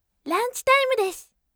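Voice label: voice ranchi time desu bright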